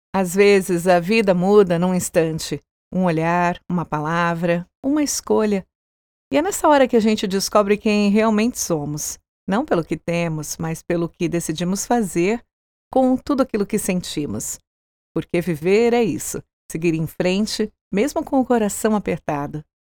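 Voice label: Brazilian Portuguese, Emotional, voice demos; GoLocalise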